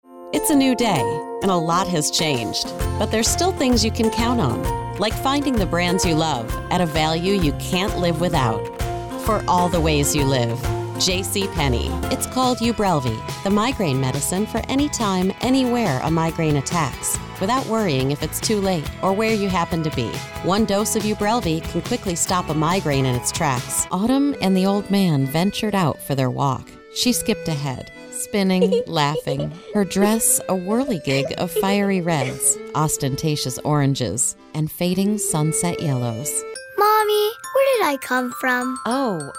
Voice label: Commercial VO